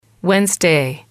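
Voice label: "wednesday", female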